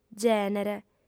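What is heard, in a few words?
"gènere", female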